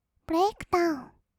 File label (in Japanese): "ブレイクダウン", kawaii voice; ASMR; 1200 kawaii voice!!; 音ゲー